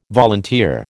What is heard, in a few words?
"volunteer", American